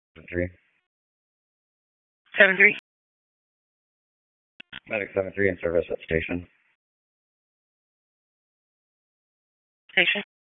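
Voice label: EMS DISP, radio; wake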